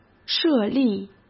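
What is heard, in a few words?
"设立", Mandarin Chinese; hsk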